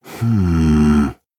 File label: Male Venthyr Thinking